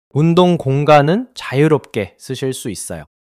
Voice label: neutral